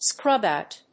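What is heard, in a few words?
"scrub out", English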